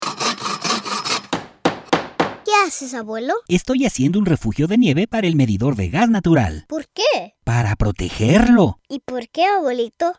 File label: Radio Spot Spanish; Snow Safety